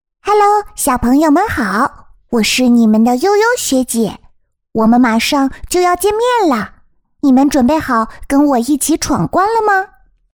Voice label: 女, 卡通人物, 学姐, 甜美亲切

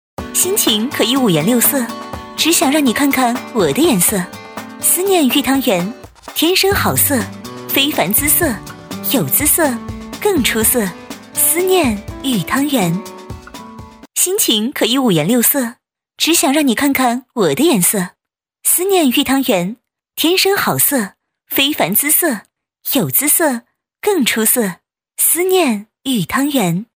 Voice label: 女 产品广告 甜美轻快; 大气庄重; 轻松活泼; 思念汤圆

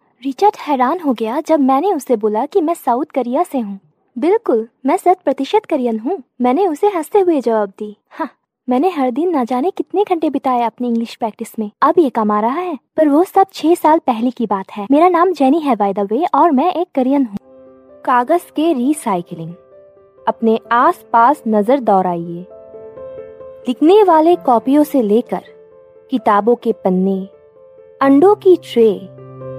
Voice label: 印地语女声

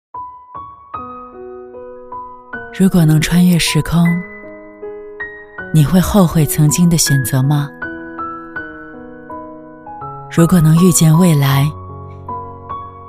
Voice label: 女 微电影 低沉 叙述; 如果能穿越时空; 磁性质感

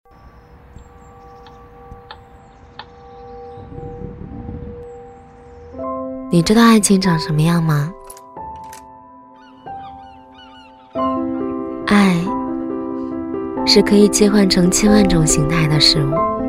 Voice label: 女-旁白-年轻自然; 你知道爱情吗？; 女B15-甜美亲和; 自然诉说

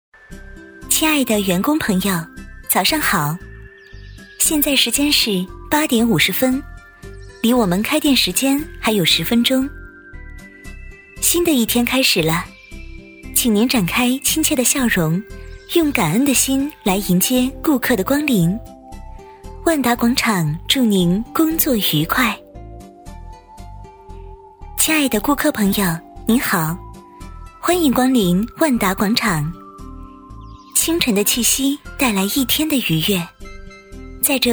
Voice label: 女 温馨提示 迎宾送宾 温馨甜美

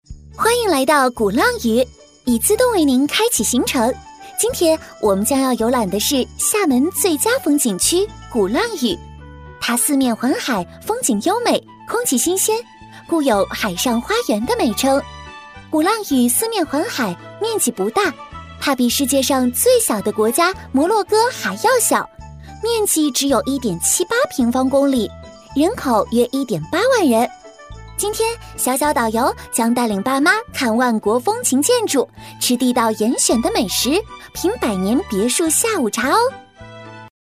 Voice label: 女 导游词 鼓浪屿 活泼开朗

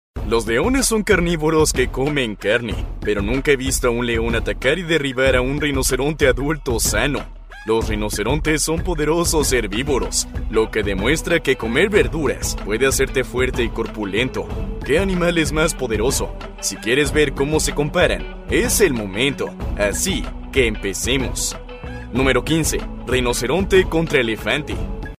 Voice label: 西班牙男声

